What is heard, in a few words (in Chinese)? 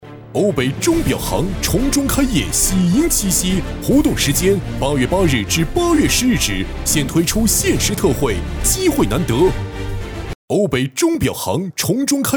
男, 促销 钟表行; 瓯北钟表行